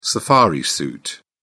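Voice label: "safari suit", English, British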